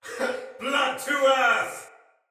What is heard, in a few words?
See Ally Hit, Soldier Male; Sinecure Soldier Male A